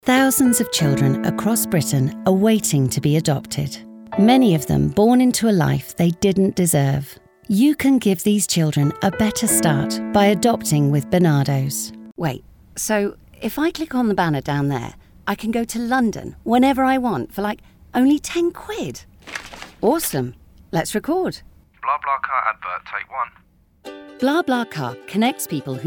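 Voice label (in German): UK English, Variabel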